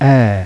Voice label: greek e, Phonetique